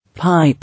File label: "Pipe", English